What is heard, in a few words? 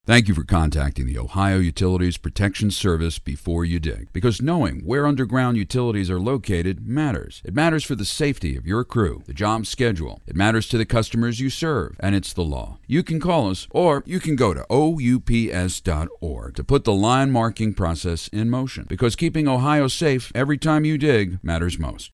Blue Collar, Flat & Real, commercials